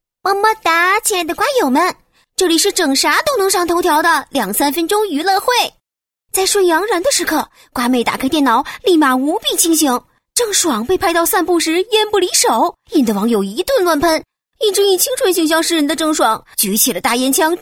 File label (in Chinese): 宣传片 搞笑娱乐新闻, 女; 病毒